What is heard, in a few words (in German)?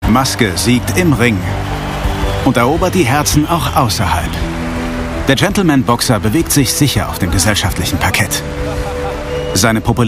Dokumentation; Henry Maske